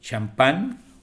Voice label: "champan", es